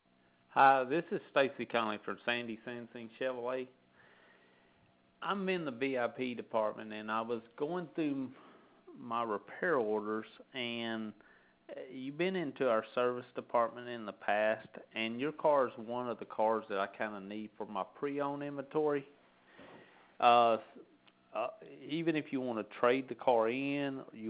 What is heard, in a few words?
outgoing, voicemail; SandySansingChevrolet; Targeted Voicemail Buy-back Campaigns